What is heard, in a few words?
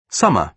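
"summer", British English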